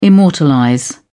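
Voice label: "immortalize", British English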